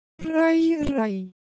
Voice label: Korean, Ideo Phones; Motion

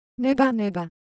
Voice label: Japanese Texture, Ideo Phones

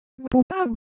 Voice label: Semai Texture; ideophones

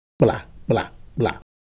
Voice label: Ewe Motion, ideophones

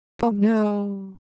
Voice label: Ewe Shape, ideophones

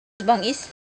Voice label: Semai Shape

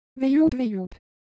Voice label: Korean Sound, ideophones

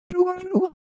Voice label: Siwu Texture; ideophones

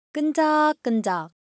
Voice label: Korean, Ideo Phones; Texture

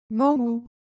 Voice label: Ewe, ideophones; Color Visual

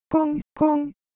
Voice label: Korean, Ideo Phones; Motion

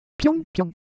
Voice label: Japanese Motion, Ideo Phones

